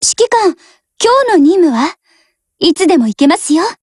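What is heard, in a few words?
HELLO, Japanese; GF Alarm; RPD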